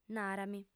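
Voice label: "nara·mi" Sardinian, female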